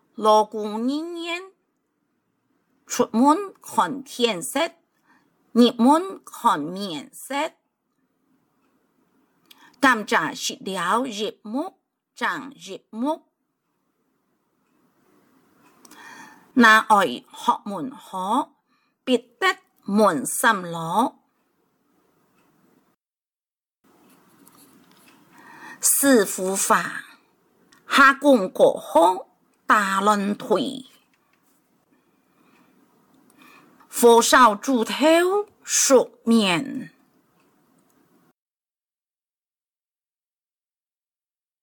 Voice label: 客語俗諺 海陸腔

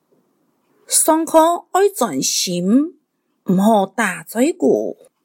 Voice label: 客語 課室生活用語 四縣腔

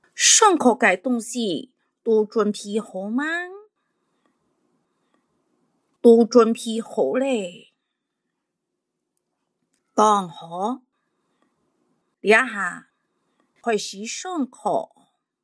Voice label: 客語 課室生活用語 海陸腔

